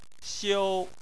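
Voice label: "xiu", Chinese, first tone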